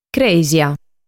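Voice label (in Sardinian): "Crèsia", Sardinian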